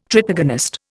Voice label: "tritagonist", Indian

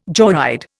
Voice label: "joyride", Indian

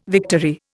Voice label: "victory", Indian

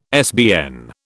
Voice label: "SBN", British

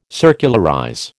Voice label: "circularize", American

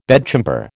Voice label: "bedchamber", American

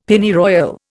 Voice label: "Pennyroyal", Indian; Dictionary

